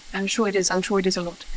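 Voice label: voice acting, es, female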